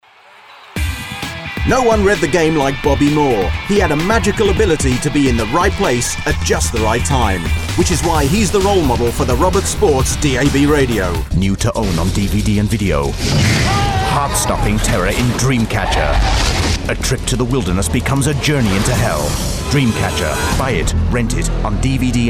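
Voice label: UK English General; GoLocalise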